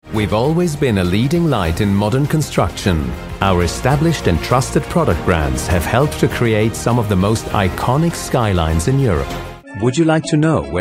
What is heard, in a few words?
international European English